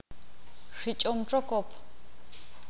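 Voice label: "halcón", Ixil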